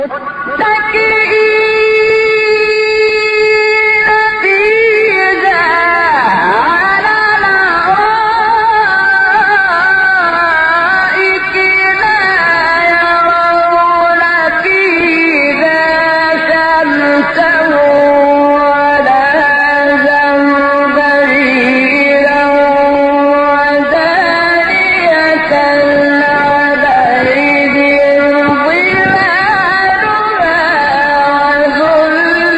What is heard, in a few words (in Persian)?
فرازی دلنشین از تلاوت سوره انسان; استاد شحات محمد انور